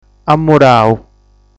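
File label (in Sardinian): "Amurau", Sardinian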